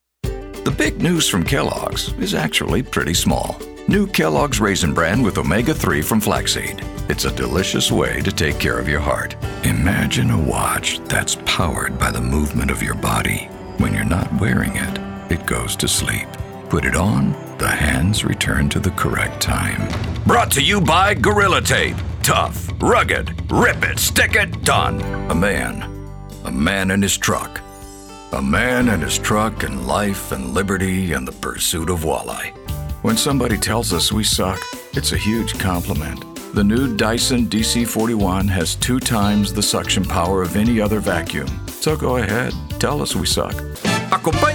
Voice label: Male Voice Over Talents Commercial